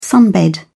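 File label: "sunbed", British English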